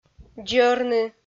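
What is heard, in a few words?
"дзӧрны", Komi, female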